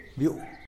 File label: "biuu" flea; animals